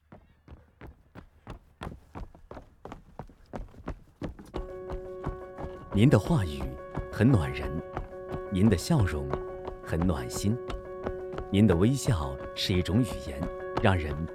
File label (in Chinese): 公益广告